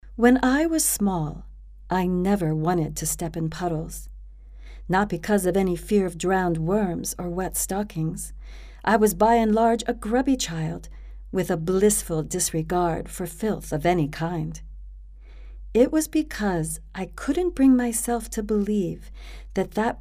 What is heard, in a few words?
US female